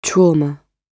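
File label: "trauma", British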